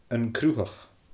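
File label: "An Cruthach", Scottish Gaelic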